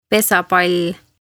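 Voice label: "Pesapall", Estonian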